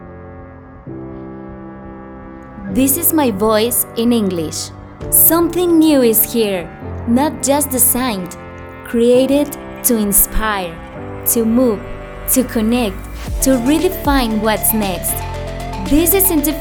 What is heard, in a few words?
English Musicalizado, ingles